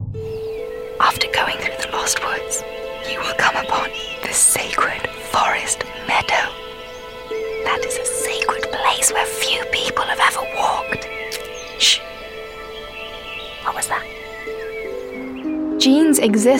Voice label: Character

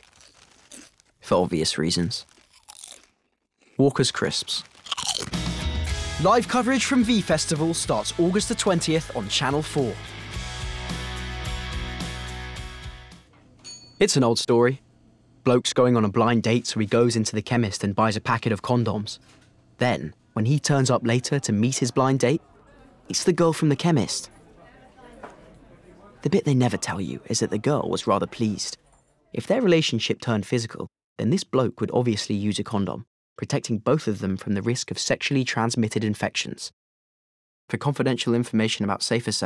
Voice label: Commercial

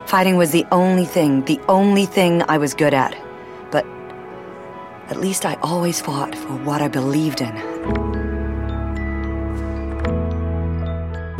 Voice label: Fighting American

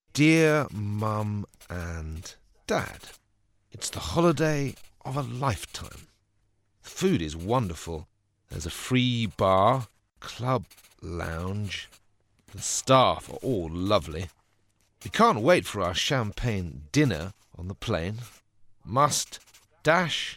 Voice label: Natural Read; Narration

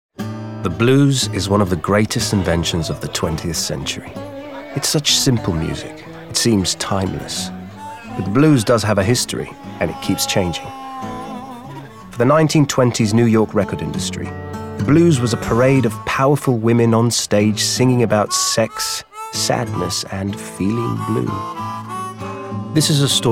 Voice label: Documentary

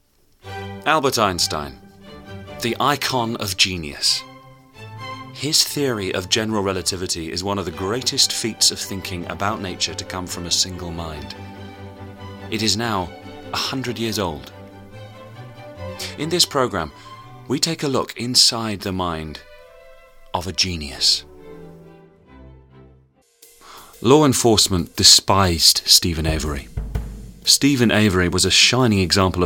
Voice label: Documentary

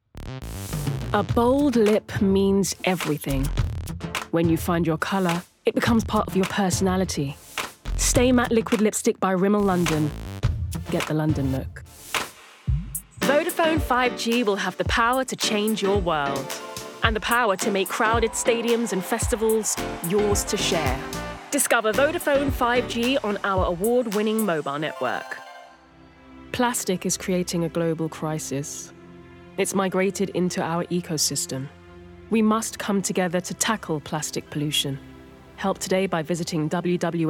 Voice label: Commercial; Natural Accents: London, Neutral

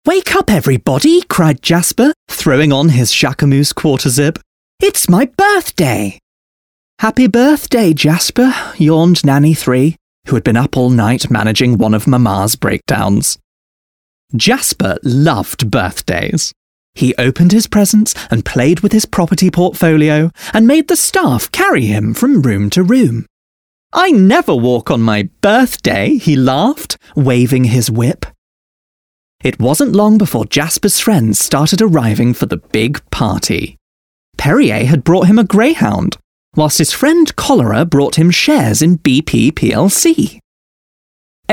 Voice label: Audiobook

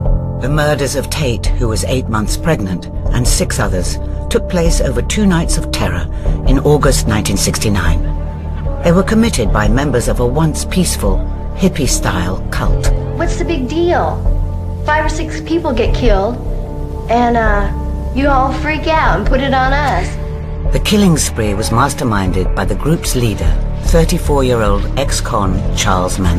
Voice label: Documentary

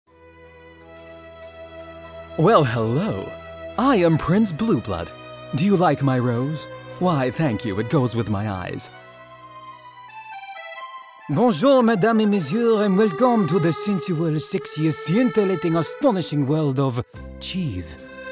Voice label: ANIMATION

